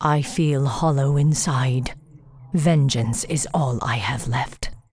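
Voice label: pissed, English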